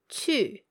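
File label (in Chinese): "qu", Mandarin Chinese, female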